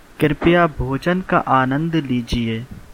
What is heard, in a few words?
bon appetit, hindi